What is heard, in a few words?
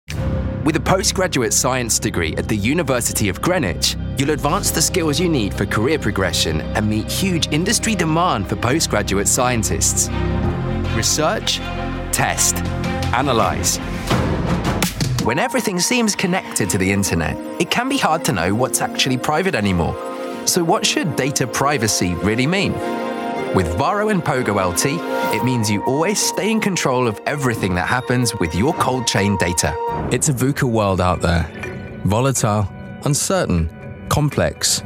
corporate